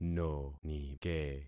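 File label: "nonike", concatenated syllables; Affixing experiment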